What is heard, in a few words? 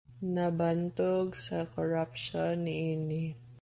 Cebuano; notorious for its corruption; nabantog sa korapsyon niini